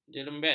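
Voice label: "jerembet", Malay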